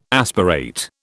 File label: "aspirate", British